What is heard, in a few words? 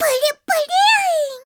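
Jigglypuff voice sample Japanese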